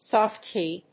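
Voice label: "SoftKey", English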